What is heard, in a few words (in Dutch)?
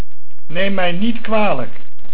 "Kwalijk", Dutch